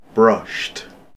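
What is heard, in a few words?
"brushed", British English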